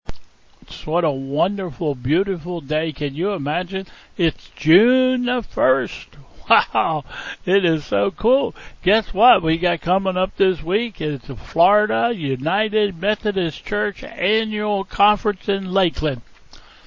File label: Welcome and Announcements